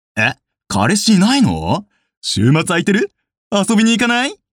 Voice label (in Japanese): dansei